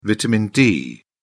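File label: "vitamin d", British English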